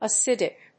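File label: "acidic", English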